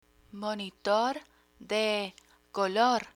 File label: "monitor de color" Spanish